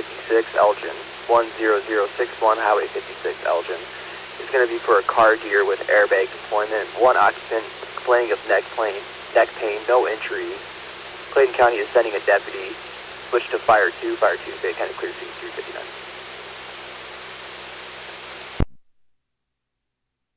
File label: Ambulance, dispatch; Elgin